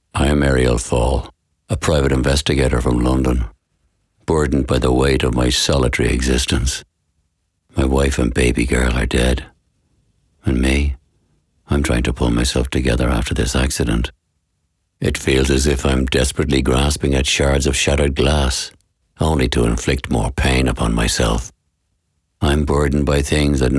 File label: Audiobook